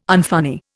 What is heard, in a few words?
"unfunny", Indian